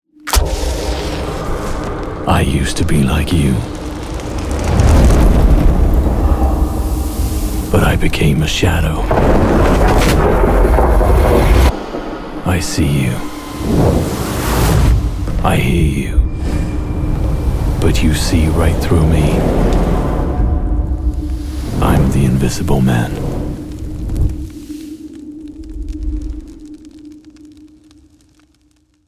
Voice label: English movie trailers